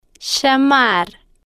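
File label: "llamar", spanish, slow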